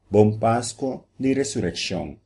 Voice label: easter papiamento

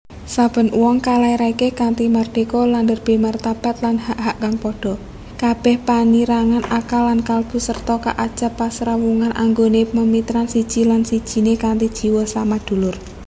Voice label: udhr javanese

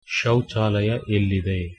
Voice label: toilet, kannada

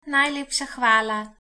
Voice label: thanks, slovenian

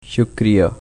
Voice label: thank you, urdu

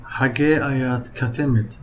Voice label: where from, somali

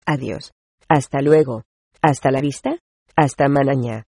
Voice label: goodbye, es

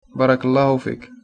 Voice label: you're welcome, arabic

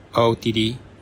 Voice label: im from, bonggi

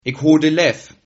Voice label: "I love you", Sylt Frisian

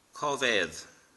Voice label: how much, Irish

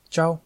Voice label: hello livonian

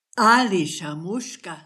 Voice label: welcome, kichwa

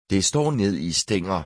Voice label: rain, dk

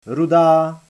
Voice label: red Czech

